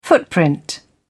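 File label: "footprint", en, uk British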